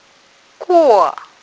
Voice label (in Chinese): "guo4", phonics